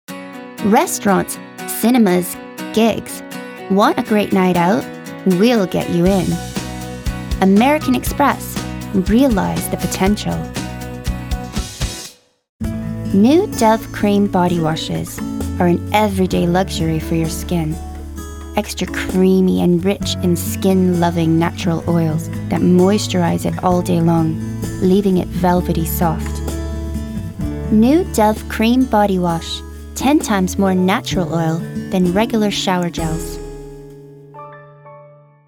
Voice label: female English slight Canadian accent commercial compilation